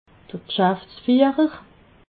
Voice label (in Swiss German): "gschaftfiehrer", alsace